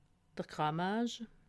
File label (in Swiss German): "grammage", alsace